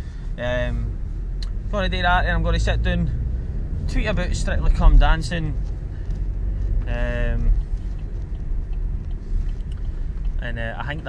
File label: chinese